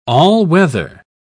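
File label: "all-weather", English, British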